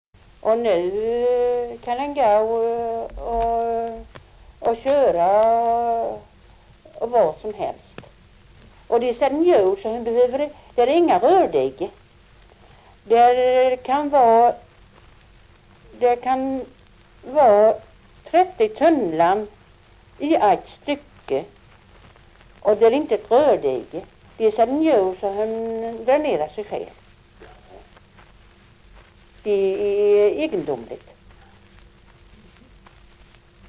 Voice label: Morup, dialekter; HALL

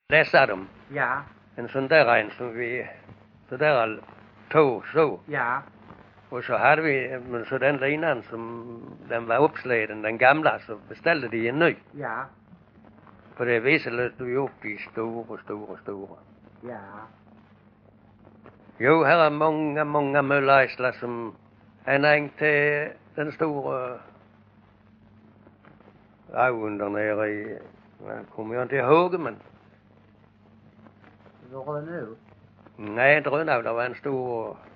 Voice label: Bosjökloster SK, dialekter; Folkminnen

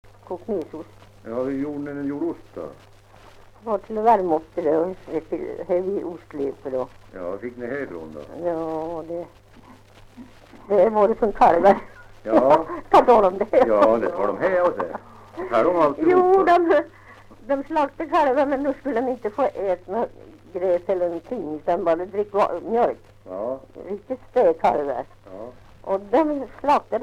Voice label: dialekter; Folkminnen

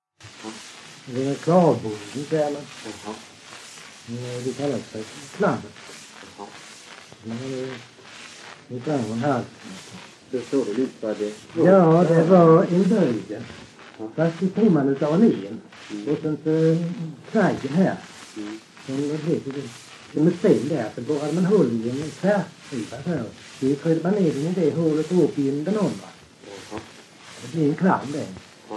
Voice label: Öljehult, dialekter; svenska sagor

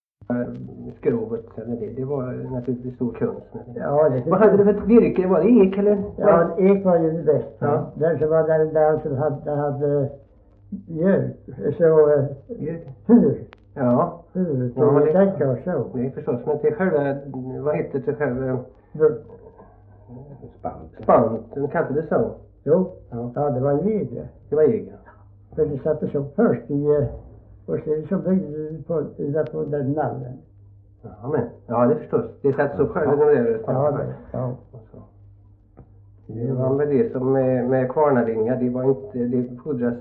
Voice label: Värö, dialekter; svenska sagor; Folkminnen

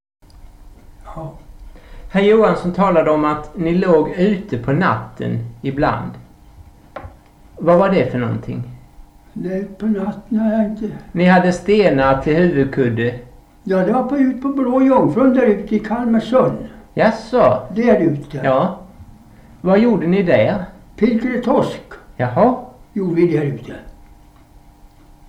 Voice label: Boda, dialekter

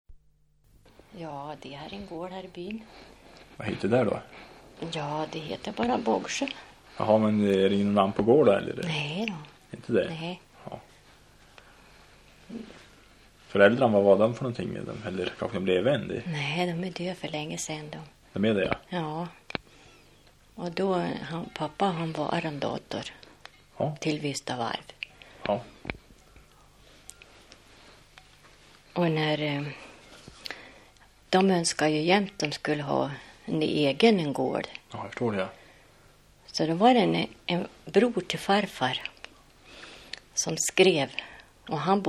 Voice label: Sundsjö, dialekter; Svenska sagor; Folkminnen

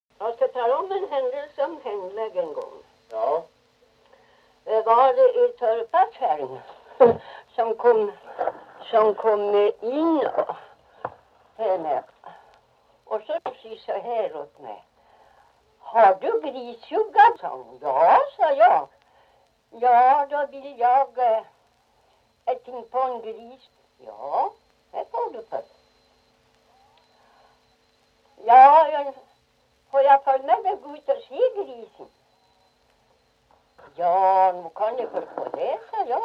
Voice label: Ovansjo, dialekter

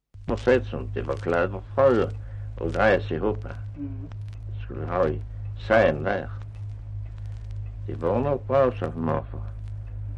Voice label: Östra Ingelstad, dialekter; Folkminnen

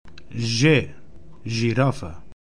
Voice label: "Zirafa", Romska

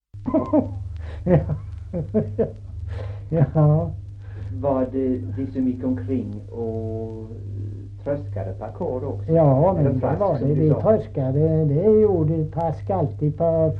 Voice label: Kristianopel BLEK, dialekter; Folkminnen

